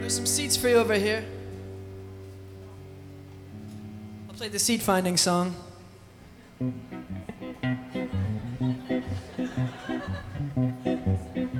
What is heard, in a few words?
(monologue)